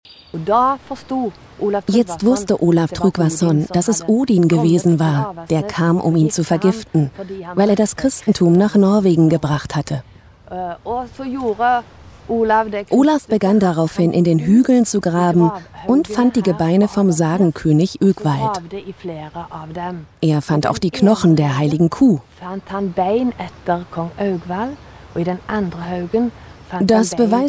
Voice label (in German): Voiceover